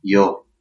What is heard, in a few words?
no, albanian gheg